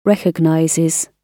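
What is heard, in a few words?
"recognizes", British English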